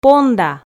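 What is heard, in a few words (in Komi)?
"понда", Komi, female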